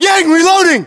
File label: inform reloading, US